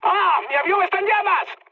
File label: on fire, mood high, speech; War Thunder